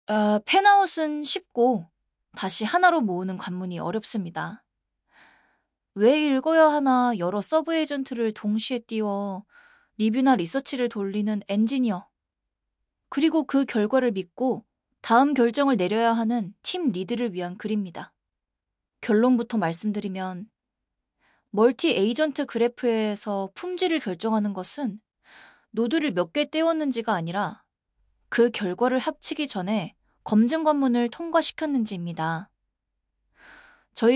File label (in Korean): audiobook; graph engineering closing fanout